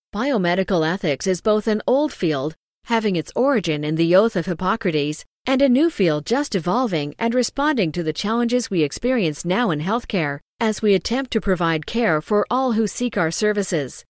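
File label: US English, corporate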